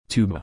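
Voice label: "tuba", English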